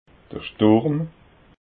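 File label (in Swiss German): "sturm", Alsatian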